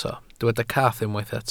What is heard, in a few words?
"cath", Welsh